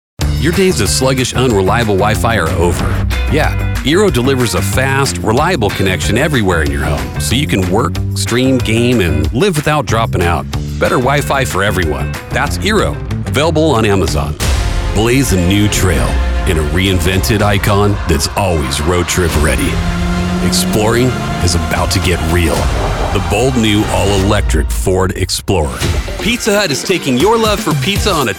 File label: Commercial